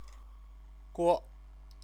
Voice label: "guo", Chinese

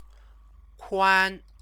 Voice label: "kuan", Chinese

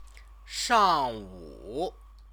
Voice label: "shangwu", Chinese

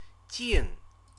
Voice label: "jing", Chinese

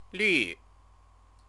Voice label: "lu", Chinese; green; stative verb

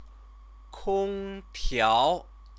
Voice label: "kongtiao", Chinese; Chinese lessons

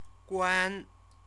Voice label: "guan", Chinese

